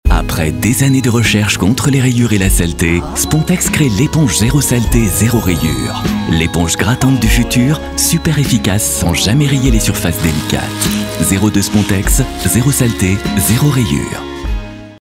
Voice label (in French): chaleureux; Spontex